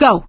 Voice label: emphatic go, survivor voice; source engine; left 4 dead 2; producer